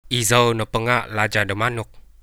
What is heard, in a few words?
i am the king of the chickens kadazan, coastal kadazan